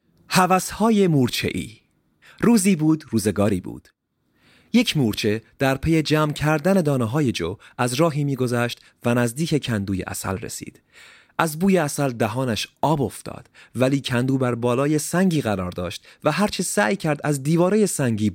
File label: farsi male; dictor